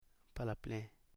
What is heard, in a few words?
five hundred, Koro